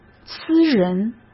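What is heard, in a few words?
"私人", Mandarin Chinese; hsk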